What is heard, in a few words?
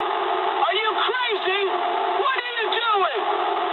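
English player killed ally mood med; War Thunder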